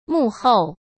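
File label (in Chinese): "幕后", Mandarin Chinese; chinese flashcards; hsk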